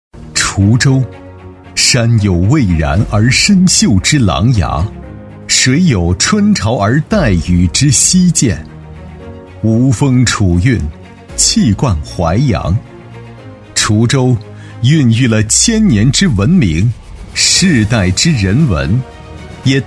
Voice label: 男 学校宣传 大气抒情; 年轻厚重; 舒缓抒情; 滁州中学新校区

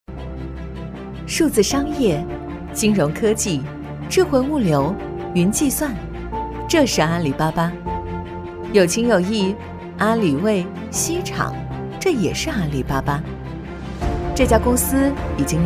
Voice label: 女 公司宣传 科技明亮; 成熟知性; 阿里巴巴

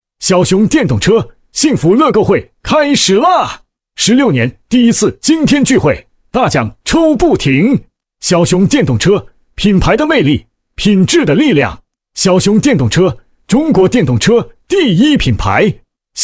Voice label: 激情促销男, 促销叫卖广告配音; 电动车幸福乐购会

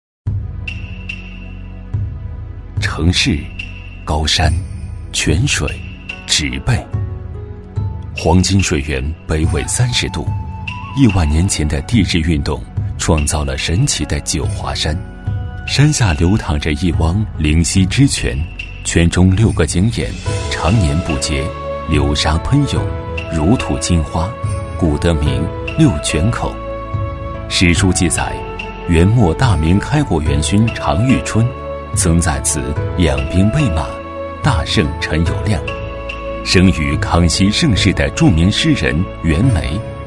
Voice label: 男 品牌广告 故事感; 水源

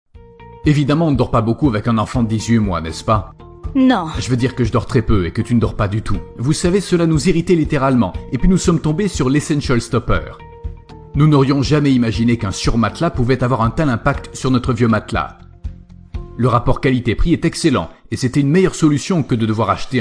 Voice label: 法语男声

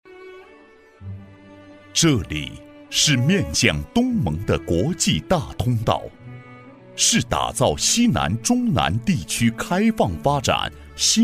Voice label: 男, 招商宣传片, 广西招商

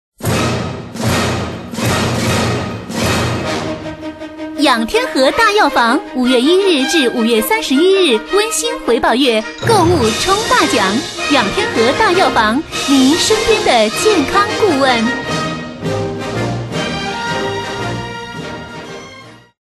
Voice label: 女, 药房广告, 促销激情

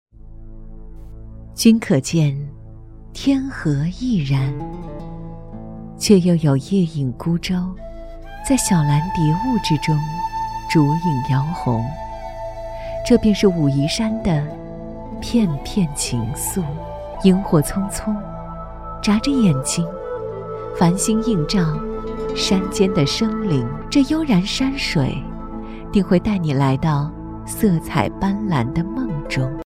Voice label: 女, 景点宣传, 夜游, 柔和 娓娓道来; 武夷山夜游; 舒缓抒情

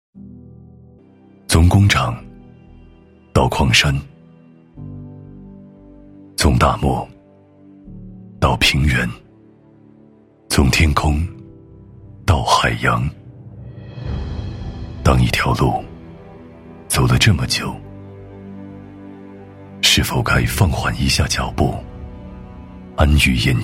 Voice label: 男 企业宣传 高端质感; 男98-磁性品质 质感磁性; 工业潜能

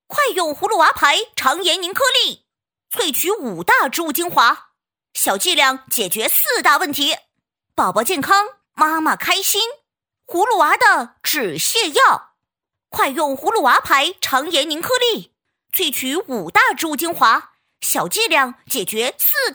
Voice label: 女 童声 男童; 肠炎宁颗粒

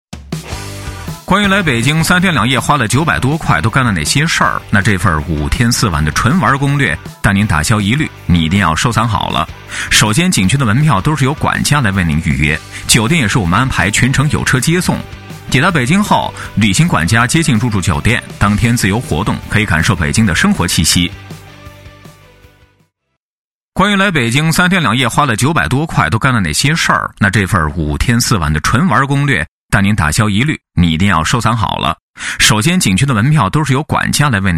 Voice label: 北京话男

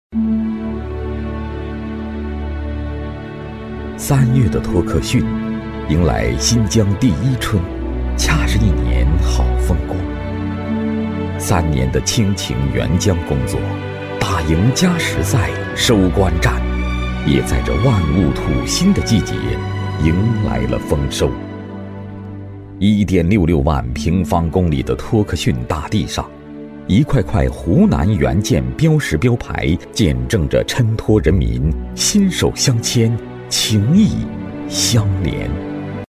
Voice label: 男, 宣传片旁白, 大气深情; 湖南援疆

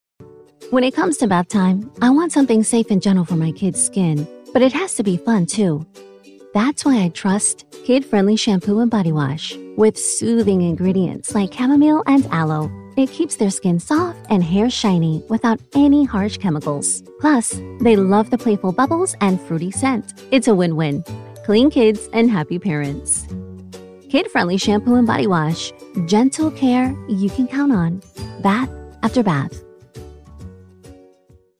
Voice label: 美式女声

